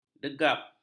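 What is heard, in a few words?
"degap", Malay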